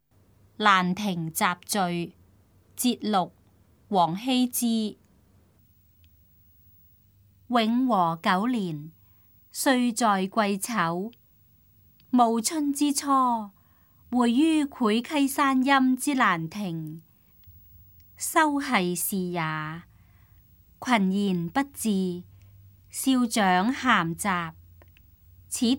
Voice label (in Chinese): cantonese; 蘭亭集序（節錄）; 粵語